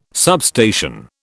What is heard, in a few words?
"substation", British